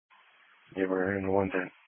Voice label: Police Patrol 1 (Dispatch)